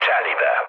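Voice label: Hungarian bomb success mood low, speech; War Thunder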